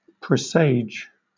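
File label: "presage", British English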